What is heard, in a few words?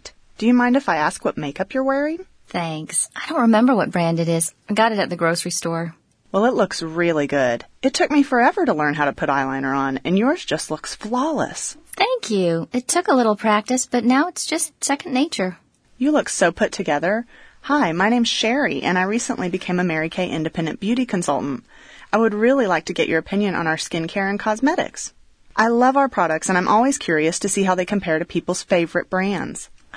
Warm Chatter